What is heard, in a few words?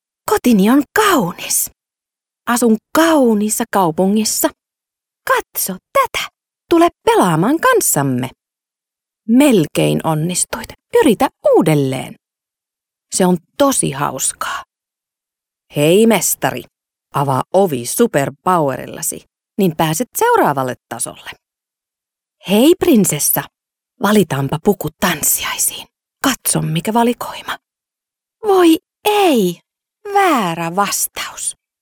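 Finnish female children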